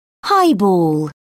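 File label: "highball", English, British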